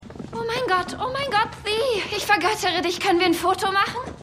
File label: Tween Girl; Only Murders in the Building